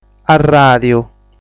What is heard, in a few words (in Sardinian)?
"Arràdiu", sardu